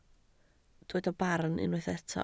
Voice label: "barn", Welsh